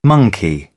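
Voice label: "monkey", British English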